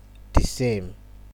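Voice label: "disame", Nigerian Pidgin, male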